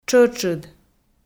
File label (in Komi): "тшӧтшыд", Komi